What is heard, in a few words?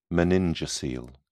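"meningocele", British English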